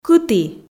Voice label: "кыті" Komi

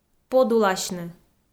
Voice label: "подуласьны", Komi

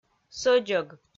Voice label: "сӧдзӧг", Komi

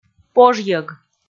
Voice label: "пожйӧг", Komi

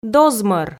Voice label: "дозмӧр", Komi

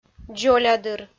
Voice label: "дзолядыр", Komi

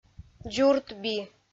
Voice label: "дзуртби", Komi

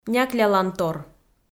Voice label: "няклялантор", Komi

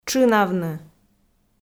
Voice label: "тшынавны", Komi

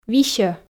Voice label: "висьӧ", f

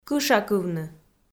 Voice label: "кышакывны" Komi

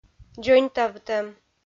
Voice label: "дзоньтавтӧм", Komi

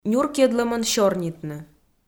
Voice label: "нюркйӧдлӧмӧн сёрнитны", Komi

